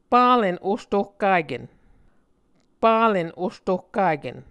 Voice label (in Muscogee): "palen ostohkaken", Muscogee